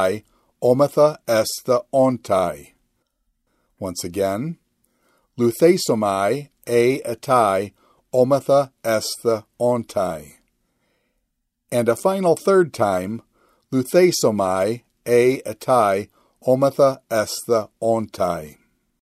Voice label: Quick Chant Future Passive Ind; luw